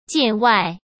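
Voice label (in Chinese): "见外", Mandarin Chinese; chinese-flashcards; hsk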